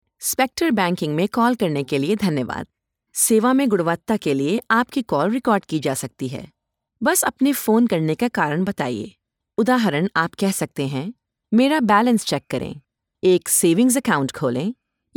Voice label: IVR Banking Hindi

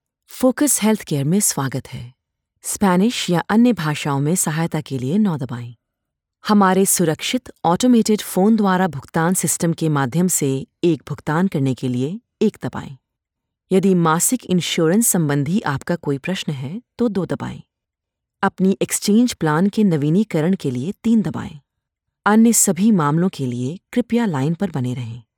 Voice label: IVR Heathcare Hindi

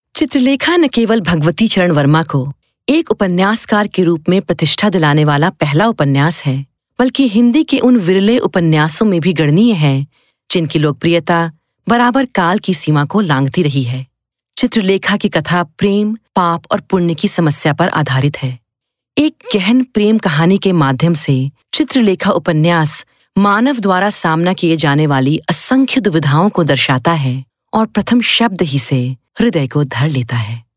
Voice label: AudioBook Hindi

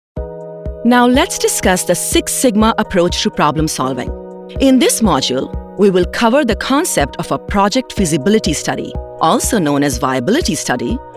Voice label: Formal, elearning

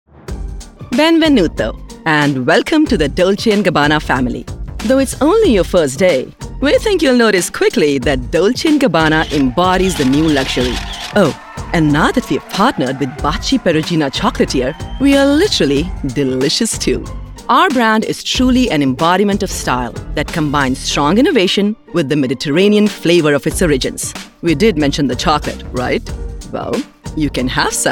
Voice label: ELearning (Amusing)

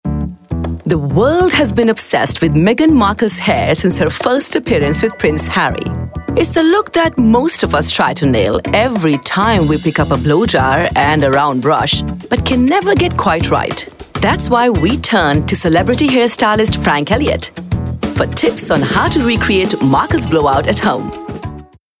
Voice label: Entertainment, narration

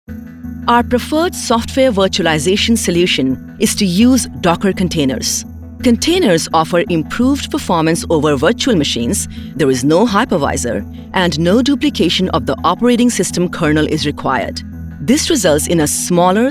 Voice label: Technical, elearning

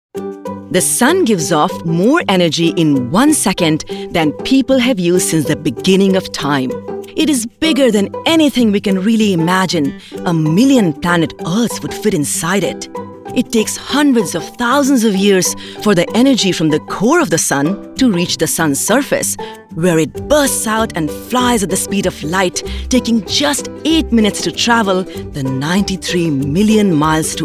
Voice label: Kids, elearning

